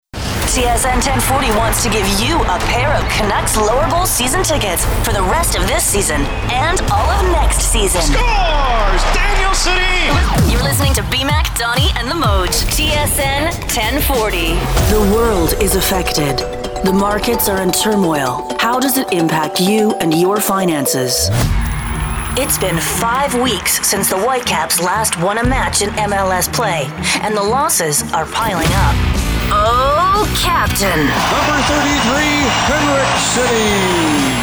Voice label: Sports Imaging